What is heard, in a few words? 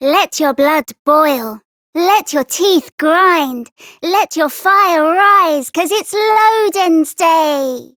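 Child Rhymes Anger Child Female; Duviri; Childrens Rhymes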